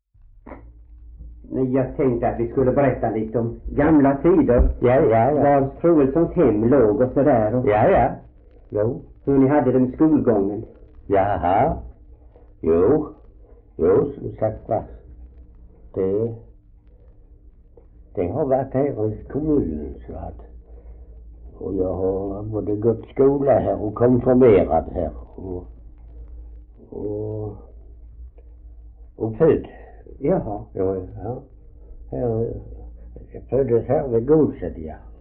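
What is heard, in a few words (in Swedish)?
Ausås Skåne, dialekter; Folkminnen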